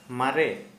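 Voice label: Santali, male, old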